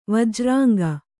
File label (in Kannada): "ವಜ್ರಾಂಗ", Kannada